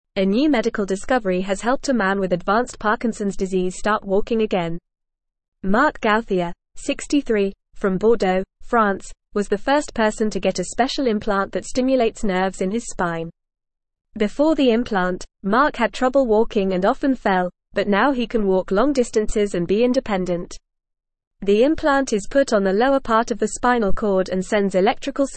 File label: Upper Intermediate FAST Reading, English